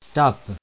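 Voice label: "tape", French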